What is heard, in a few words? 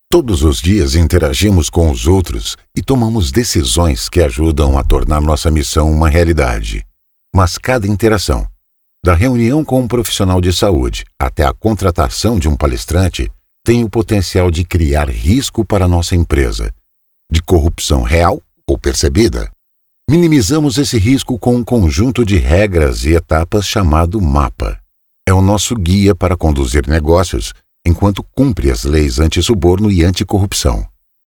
male brazilian portuguese narration